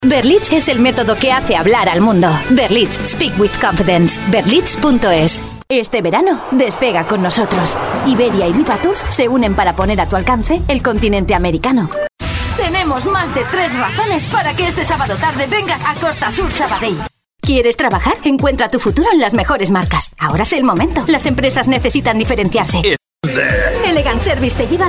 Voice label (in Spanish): radio; radio simpatica